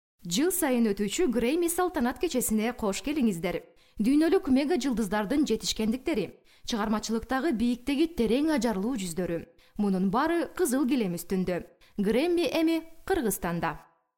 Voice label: Kirgizca Kadin; seslendirme

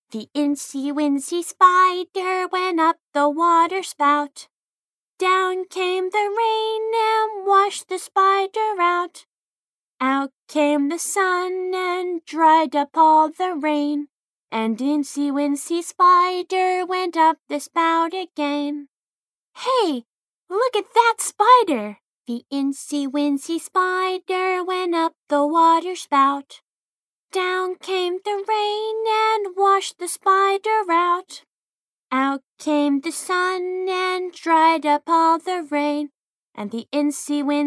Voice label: Amerikan Ingilizcesi Kiz Cocuk, kadin sesler

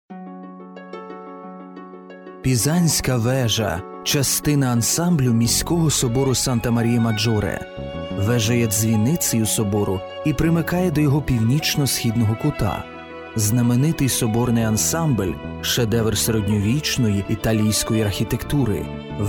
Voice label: Ukraynaca Erkek, ukraynaca seslendirme; erkek sesler

